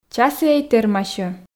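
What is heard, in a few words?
часіӧй тэрмасьӧ, Komi, female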